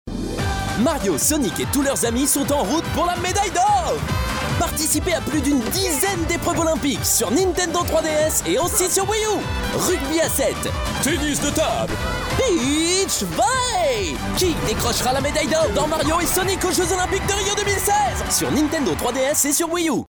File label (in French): spot tv, demos voix; nintendo mario sonic 2016